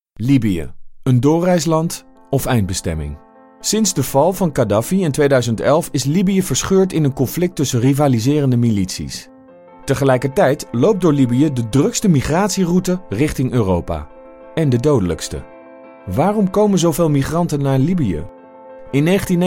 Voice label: Documentaire

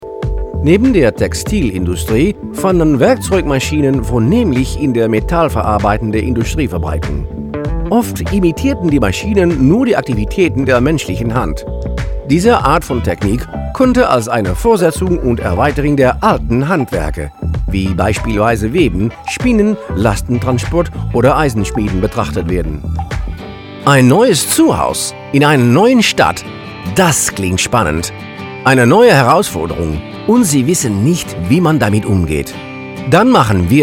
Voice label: duits

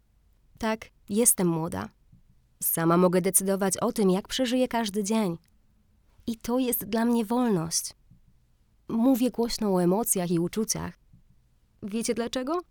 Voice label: Pools vrouw

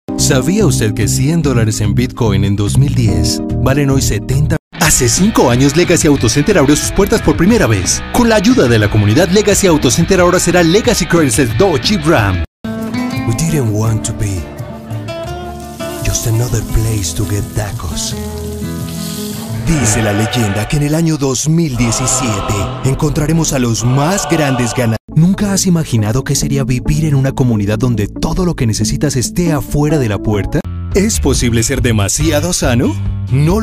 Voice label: Spaans Man

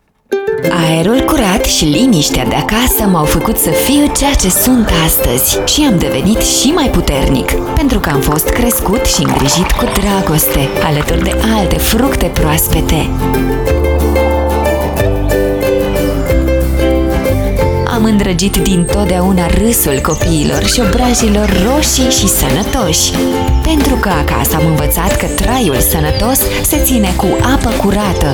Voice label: Roemeens Vrouw

